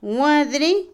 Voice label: jardín, huerto, Otomí, mujer; INALI

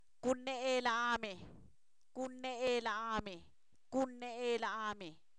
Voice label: habla (él), Zapoteco, san miguel albarradas; verbos; INALI

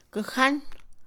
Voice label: brazalete, Mixe, Mujer; Ropa; INALI

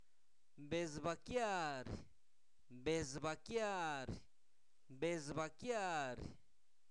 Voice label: avispa, Zapoteco, santo domingo albarradas; animales; INALI

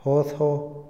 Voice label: rendirse, Otomí, hombre; INALI

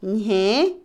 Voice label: espejo, Otomí, mujer; Ropa; INALI

